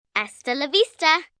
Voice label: Spanish See You, Greetings, Foreign, 1 girl, 9 yrs., Spanish; Talking